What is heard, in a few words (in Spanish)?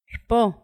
"lo supo", Paipai